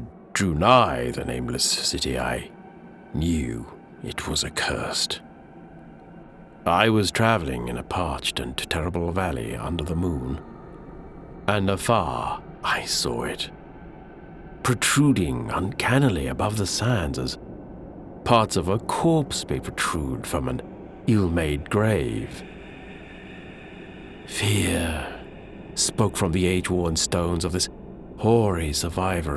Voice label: Dramatic Narration

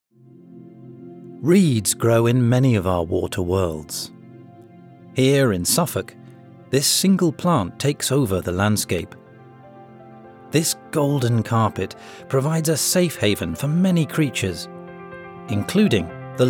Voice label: Nature Documentary

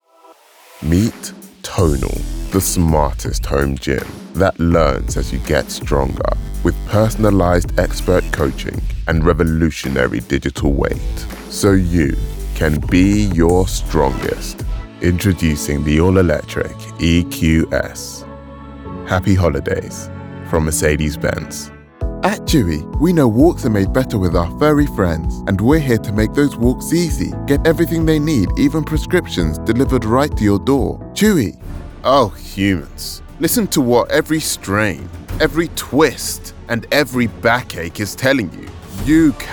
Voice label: Commerical Demo

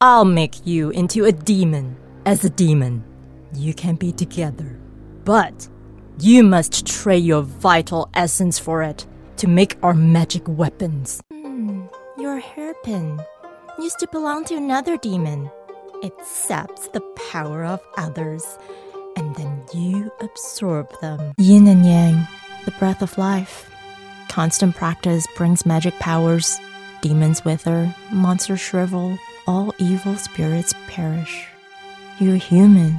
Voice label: Animation VO

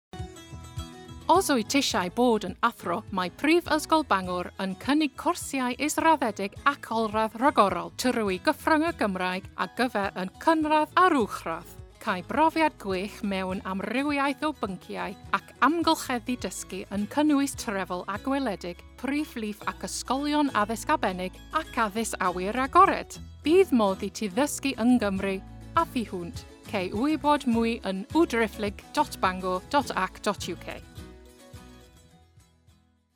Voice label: Welsh Cymraeg

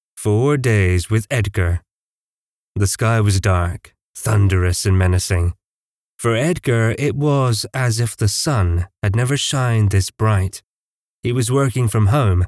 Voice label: Natural Voice

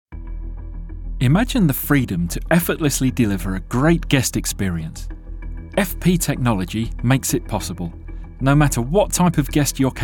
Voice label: Corporate

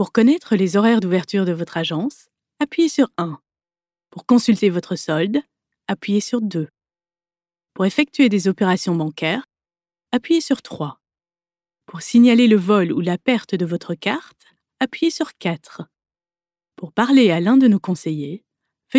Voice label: Corporate French

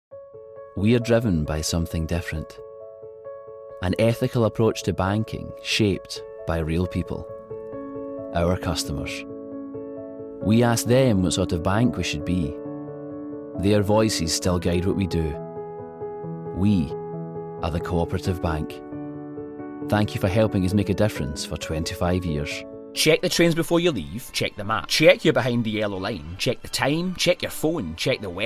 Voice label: Commercial Reel

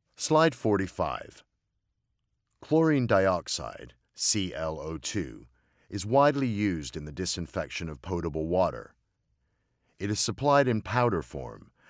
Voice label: e Learning

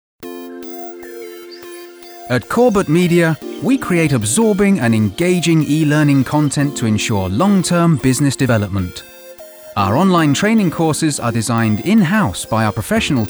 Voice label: Narrative

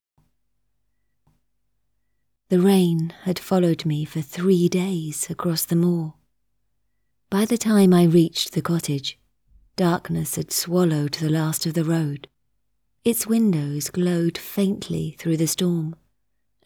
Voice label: Ghost Story Audiobook

